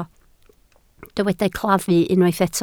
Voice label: "claddu", Welsh